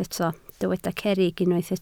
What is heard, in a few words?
"cerrig", Welsh